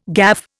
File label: "gaffe", Indian